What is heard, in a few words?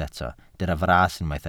"fras", Welsh